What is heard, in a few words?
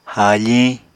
"haafiñ", Apatani